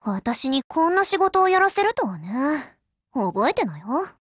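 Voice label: OPERATION BEGIN, Japanese; GF Alarm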